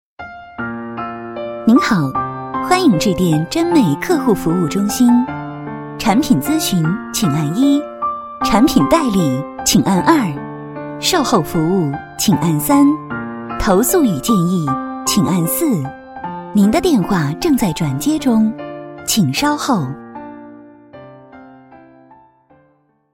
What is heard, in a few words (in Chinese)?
女 彩铃; 臻美; 方言